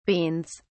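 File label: "beans", English, British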